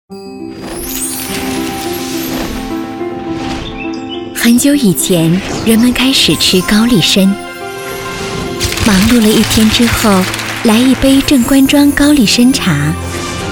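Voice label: Chinese, female, commercial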